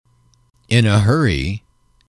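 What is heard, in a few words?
"in a hurry", English, male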